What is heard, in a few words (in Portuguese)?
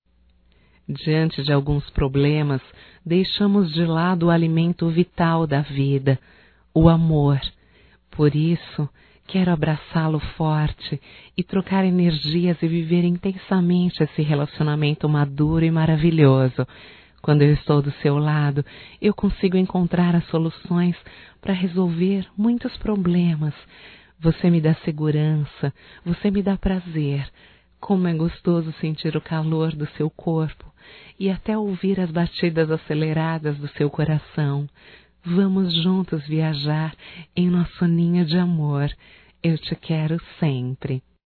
EROTICA SUAVE (F); Românticas